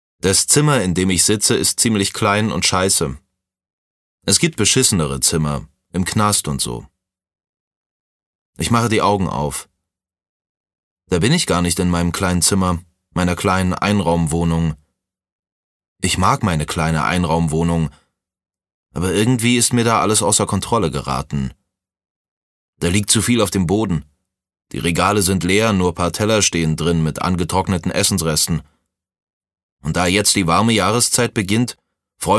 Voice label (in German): hoerbuch nuechtern